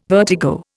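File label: "vertigo", Indian English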